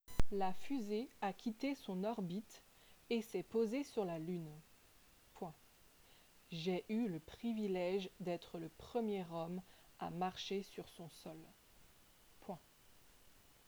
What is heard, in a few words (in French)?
dictee